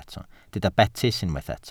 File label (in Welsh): "betys", Welsh